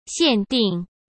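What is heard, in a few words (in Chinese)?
"限定", Mandarin Chinese; hsk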